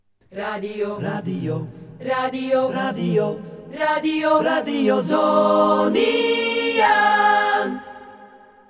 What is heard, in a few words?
station call radio radiiio; jingles radio zoniën 1982-83